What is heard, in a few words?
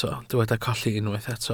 "colli", Welsh